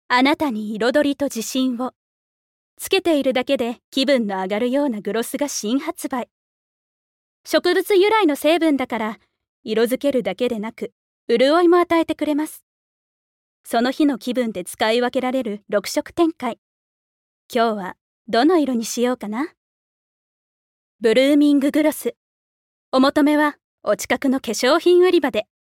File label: Narration Kesyohin CM